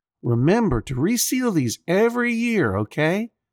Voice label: Dad complimenting your hardwood floors; Foley Library; People Sounds; Judge John Hodgman